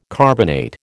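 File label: "carbonate", American English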